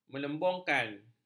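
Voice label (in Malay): "melembungkan", Malay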